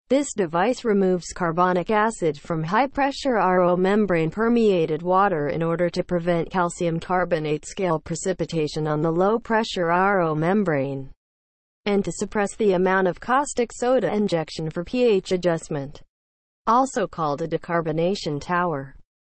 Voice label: english, seawater desalination; watercompany